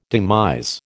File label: "demise", American